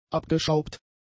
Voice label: "abgeschraubt", German; adjectives

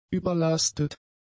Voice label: "überlastet", German; adjectives

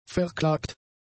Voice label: "verklagt", German; adjectives

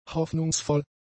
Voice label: "hoffnungsvoll", German; adjectives

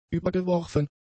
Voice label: "übergeworfen", German; adjectives

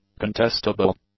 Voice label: "contestable", English; adjectives

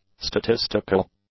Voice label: "statistical", English; adjectives

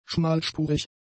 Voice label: "schmalspurig", German; adjectives

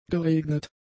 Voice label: "geregnet", German; adjectives

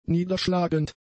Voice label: "niederschlagend", German; adjectives

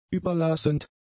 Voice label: "überlassend", German; adjectives

